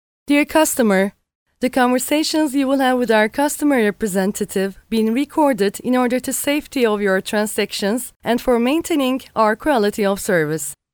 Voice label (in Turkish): İNGİLİZCE